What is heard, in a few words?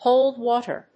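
"hold water", English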